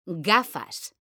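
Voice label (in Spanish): "gafas", Spanish, female; mekanta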